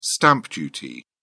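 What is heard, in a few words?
"stamp duty", British English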